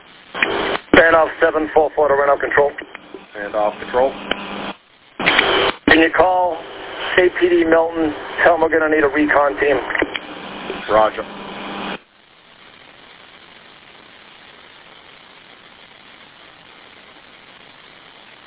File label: Police Radio